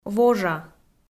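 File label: "вожа", Komi, female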